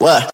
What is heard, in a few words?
"what", Voice